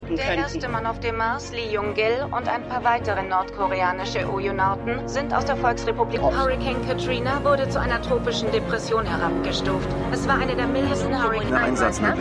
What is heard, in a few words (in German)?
Div Wbl Nachrichtenstimmen; For All Mankind